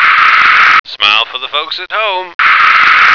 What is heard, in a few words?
"smile", American